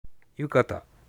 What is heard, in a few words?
"yukata", Japanese